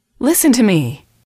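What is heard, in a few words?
listen to me, English, female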